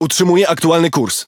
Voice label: Speech Pilots Bomber Airborne, PL; World Of Warships